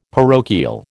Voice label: "parochial", American